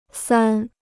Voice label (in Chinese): "三", Chinese